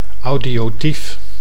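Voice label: "audiotief", Dutch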